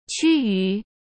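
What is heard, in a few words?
"趋于", Mandarin Chinese; hsk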